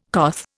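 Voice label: "coth", Indian English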